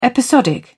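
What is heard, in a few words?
"episodic", British English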